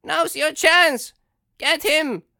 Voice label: Vulnerable Commentator; AudioWizards

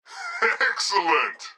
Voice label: phone good; Blind Drive

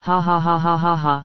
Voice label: woman; DeadFright

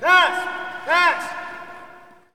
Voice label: Hockey Male "Pass"; Hockey Vocal Sounds

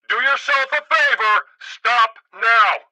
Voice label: police megaphone; Blind Drive

